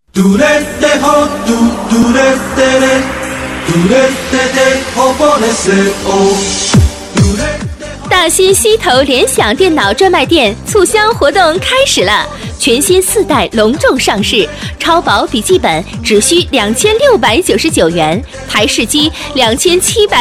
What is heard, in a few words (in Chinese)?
促销 家电, 女